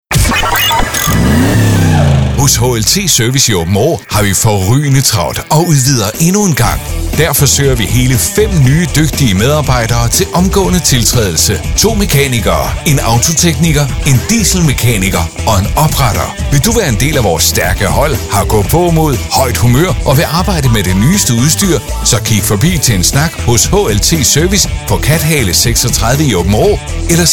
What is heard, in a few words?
radio spot